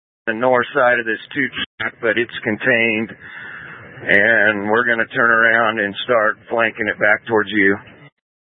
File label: Incident Tac, scanner